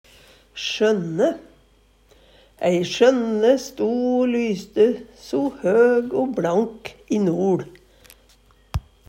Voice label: "sjønne", Numedalsmål; Substantiv hokjønn; Ei sjønne sto o lyste so høg o bLank i noL.

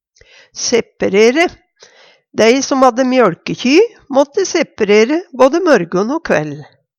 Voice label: seperere, Numedalsmål; Dei som hadde mjøLkekjy, måtte seperere både mørgon o kvell.; Hushald, mat, drikke

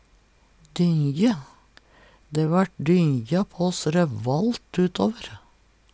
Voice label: "dynje", Numedalsmål

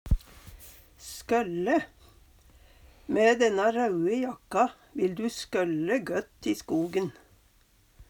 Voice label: "skølle", Numedalsmål; Verb; Mæ dænna raue jakka vil du skølle gøtt i skogen.

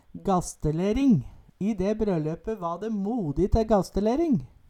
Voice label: "gastelering", Numedalsmål

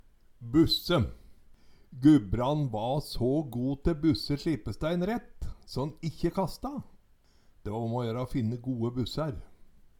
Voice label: "busse", Numedalsmål

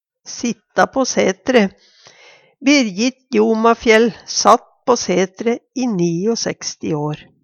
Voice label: "sitta på sætre", Numedalsmål; Berjit Jomafjell satt på setre i 69 år.

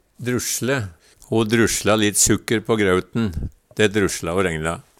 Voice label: "drusle", Numedalsmål; Verb

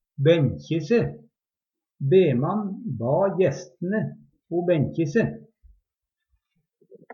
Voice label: "benkje se", Numedalsmål; setja seg på / i benk; Uttrykk

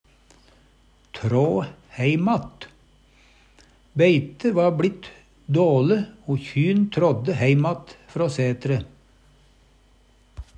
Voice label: trå heim att, Numedalsmål; Uttrykk; Beite va blitt dåle, o kjyn trådde heim att frå sætre.

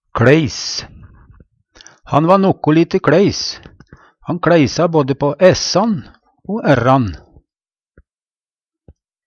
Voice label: kLeis, Numedalsmål; Han va nokkolite kLeis. Han kLeisa på både s-an o r-an.; Adjektiv

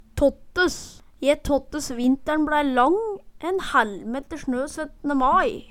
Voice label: tåttes, Numedalsmål; Je tåttes vintern bLæi lang, en hællmeter snø 17. mai

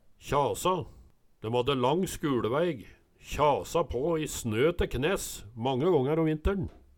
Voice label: kjasa, Numedalsmål

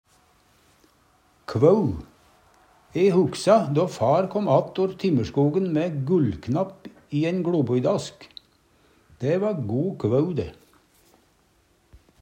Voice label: "kvau", Numedalsmål

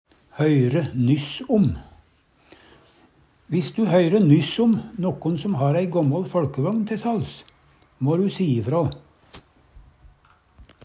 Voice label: "høyre nyss om", Numedalsmål; Viss du høyre nyss om nokon som har ei gåmmål føLkevøgn te salgs

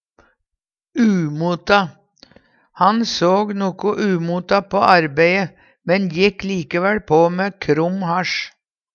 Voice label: umota, Numedalsmål

